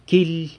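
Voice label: "quily", San Lucas Quiavini Zapotec; kilo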